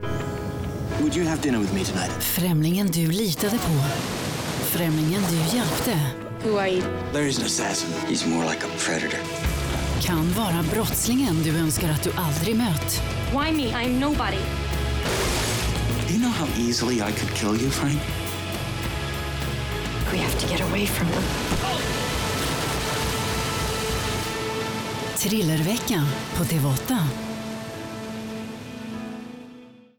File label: female, Swedish promo